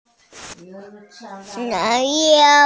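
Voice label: "nǎi niú", Mandarin Chinese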